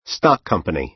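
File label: "stock company", American English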